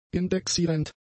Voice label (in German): "indexierend", German; adjectives